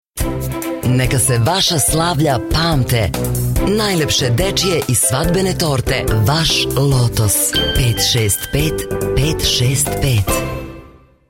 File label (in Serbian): radio reklama za torte kolace